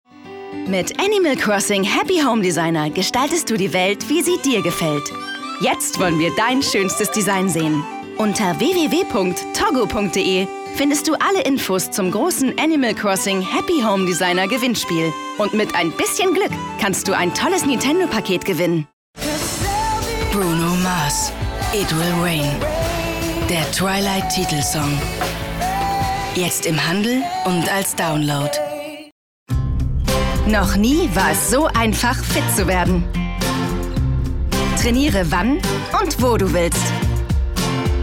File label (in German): sprecherreel werbung